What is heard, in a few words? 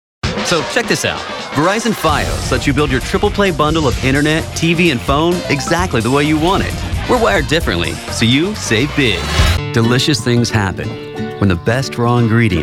Commercial VO